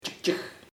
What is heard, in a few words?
dried, chamacoco; secado